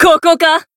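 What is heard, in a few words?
Voice attack, Japanese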